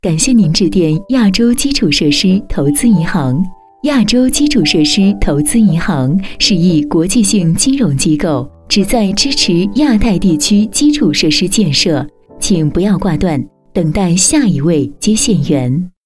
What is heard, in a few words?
IVR Mandarin; AIIB